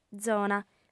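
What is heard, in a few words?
"zona", Sardinian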